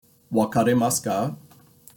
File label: "Wakari-masuka" Japanese